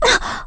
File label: Reassuring